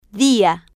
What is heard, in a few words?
"dia", Spanish